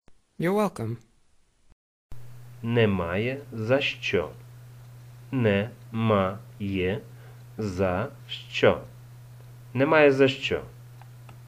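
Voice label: You are welcome, Ukrainian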